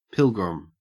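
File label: "pilgrim", Australian English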